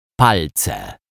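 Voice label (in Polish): "palce", Polish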